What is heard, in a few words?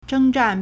"zheng1zhan4", Chinese